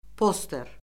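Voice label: "poster", Azerbaijani; Orfoqrafiya Lüğəti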